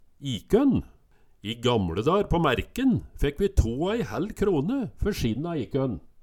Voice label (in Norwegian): ikonn, Numedalsmål